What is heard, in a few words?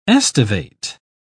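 "aestivate", English, uk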